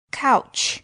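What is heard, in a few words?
"couch", English word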